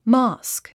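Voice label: "mosque", English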